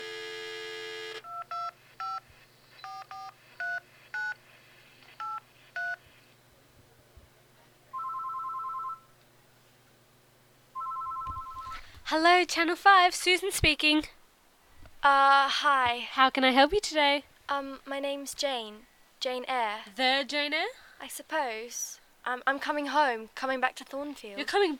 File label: phone call to the news station